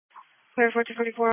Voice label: Police Patrol 1 (Dispatch)

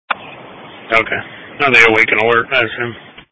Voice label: EMS Dispatch

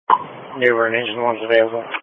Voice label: Fire Tactical

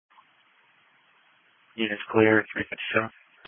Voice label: Police Patrol 1 (Dispatch); New Bern Police Patrol 1 (Dispatch) (TG 200)

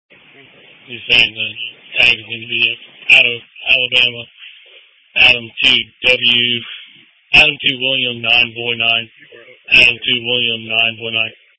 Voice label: Police Patrol 1 (Dispatch); New Bern Police Patrol 1 (Dispatch) (TG 200)

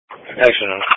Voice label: Police Patrol 1 (Dispatch)

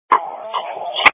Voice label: Police Patrol 1 (Dispatch)

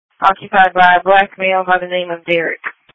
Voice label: Police Patrol 1 (Dispatch)

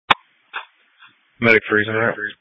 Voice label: EMS Dispatch

